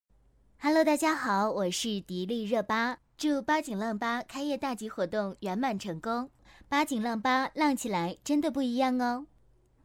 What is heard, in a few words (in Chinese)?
女, 模仿; 迪丽热巴